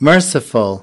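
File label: "merciful", English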